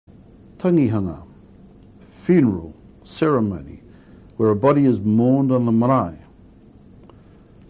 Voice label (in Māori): "tangihanga", maori words